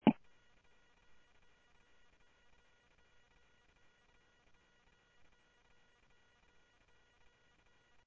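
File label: Sheriff East County, scanner audio trunk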